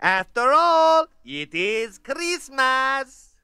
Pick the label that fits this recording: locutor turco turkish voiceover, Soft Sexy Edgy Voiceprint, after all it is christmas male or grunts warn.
after all it is christmas male